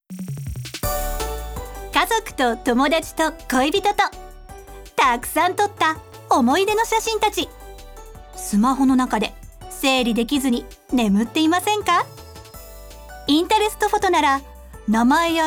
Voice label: 明るめ、ポップ; 「インタレストフォト」

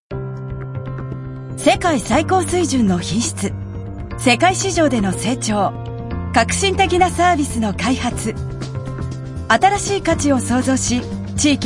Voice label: VP ストレート躍進的; 「グランテクノロジー」

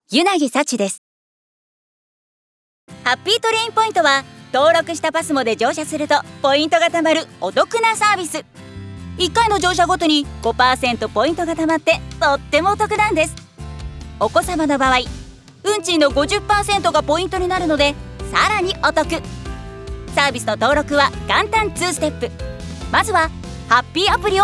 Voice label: 元気ハツラツ サービス案内; 「ハッピートレイン」

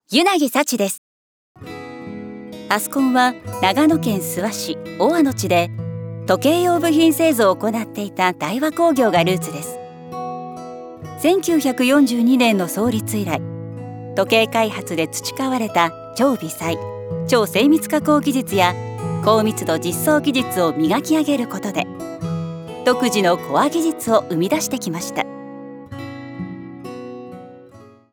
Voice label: VP やや硬め ストレート; 「アスコン」

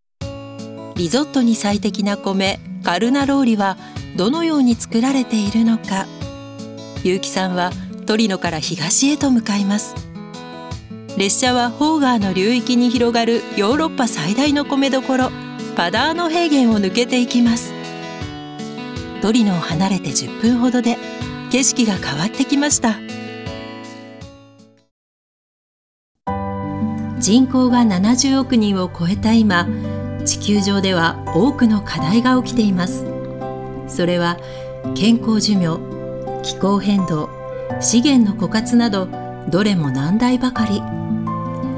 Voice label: 紀行（やわらか、落ち着き）

